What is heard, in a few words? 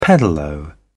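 "pedalo", English, British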